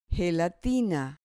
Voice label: "gelatina", Spanish